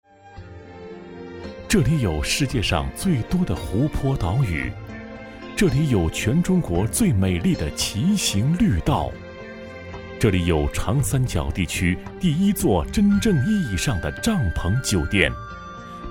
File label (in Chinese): 男 亲和感情; 千岛湖旅游度假区